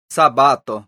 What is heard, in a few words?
"sabato", esperanto